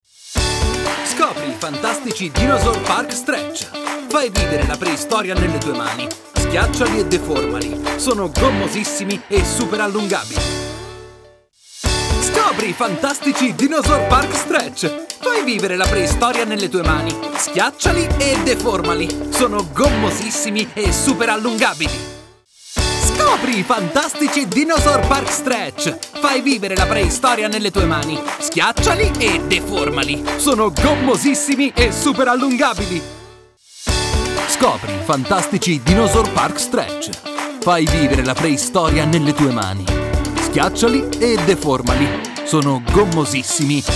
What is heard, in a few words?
italian commercial